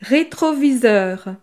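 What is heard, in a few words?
"retroviseur", French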